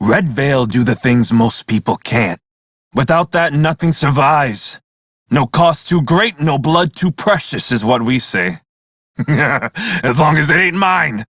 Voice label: crew idle, male; Dialog; en; Warframe; Railjack Crew; Red Veil